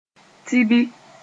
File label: "tibi", Moore